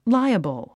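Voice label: "liable", English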